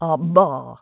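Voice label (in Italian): Implosive sonore Bilabiale, consonanti non polmonari; IPA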